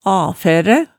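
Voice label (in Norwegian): "afæræ", Hemsedal dialect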